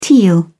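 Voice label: "teal", British English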